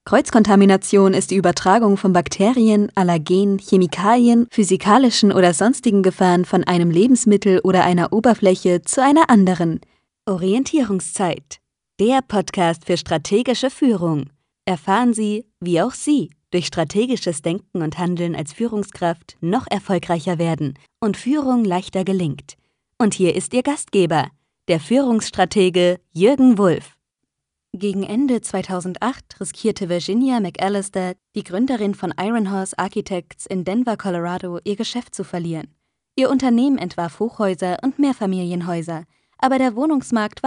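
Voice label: German female; lektoring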